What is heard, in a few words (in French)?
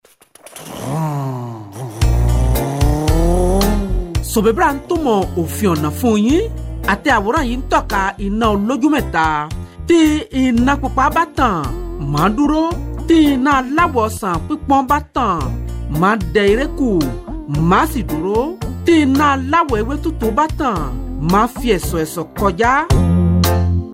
CODE DE LA ROUTE YORUBA